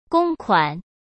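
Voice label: "公款", Mandarin Chinese; hsk; chinese-flashcards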